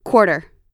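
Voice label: "quarter", US English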